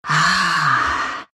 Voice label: happy, ru; Dota 2

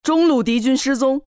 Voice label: lane missing zh; Legcom; Dota 2

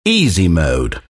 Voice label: announcer type easy mode; Dota 2; stanleyparable announcer

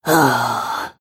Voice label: happy; snapfire

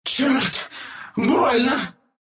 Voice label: death, ru

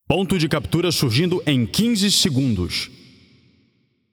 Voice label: Announcer PTBR Match Point Spawn

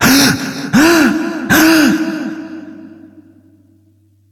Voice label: Announcer; Ringmaster

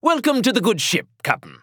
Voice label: Announcer Global Welcome; First Mate; Paladins

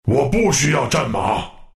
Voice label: move, zh; Dota 2

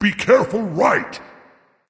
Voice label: Dragon; Careful; Fafnir

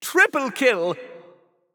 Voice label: Announcer 3 Kills; Paladins; Jester; Spree Classic

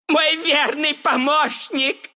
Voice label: death, ru; dota2

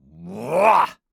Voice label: Cyborg Grunt Physical; Realm Royale; Assassin; Six-Shot Cyborg

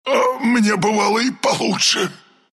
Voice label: death, ru; dota2

